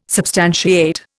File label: "substantiate", Indian